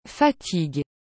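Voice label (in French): "fatigue", French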